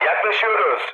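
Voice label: Turkish near waypoint, mood med, speech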